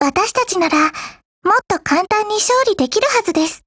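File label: WIN, Japanese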